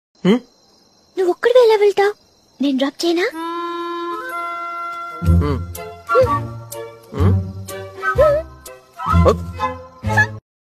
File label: telugu dialogues; bommarillu; genelia